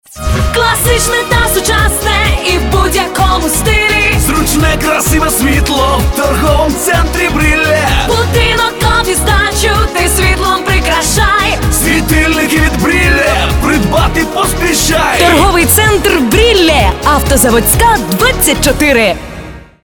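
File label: Ukrainian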